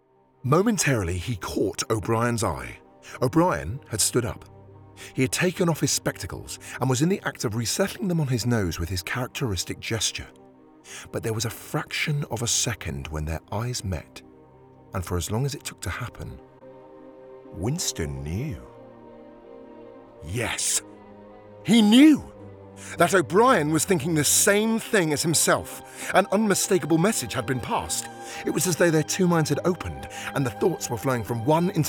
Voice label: Audiobook